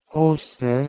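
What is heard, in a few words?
"h2ousih1", Proto-Indo-European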